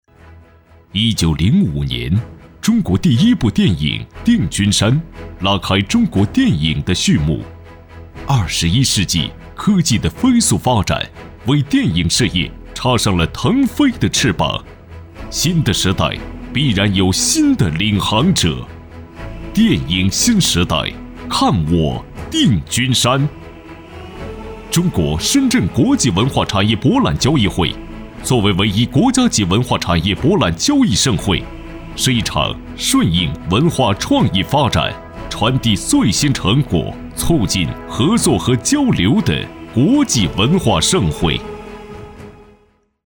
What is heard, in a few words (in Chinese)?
男 专题 科技大气; 定军山电影科技